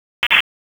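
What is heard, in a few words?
Dispatch, p25; WSO DISP 3; wake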